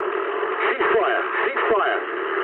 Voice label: eng player friendly fire mood low, speech eng; War Thunder